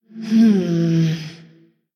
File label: Female Human Thinking; en